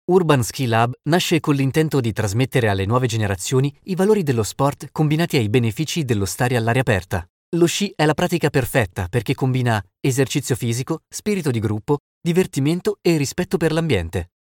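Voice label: italian Corporate Promotion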